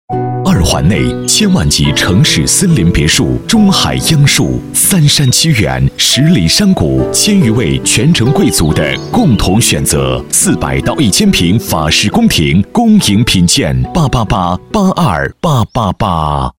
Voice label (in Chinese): 男 广告 沉稳 别墅; 铂宫别墅